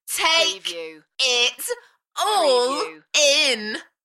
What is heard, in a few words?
UK female, Take it all in, Enthusiastic; beanstalk audio